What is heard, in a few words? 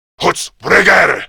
Player Spotted, Male